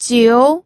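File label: "jiu2", Mandarin Chinese second tone; pinyin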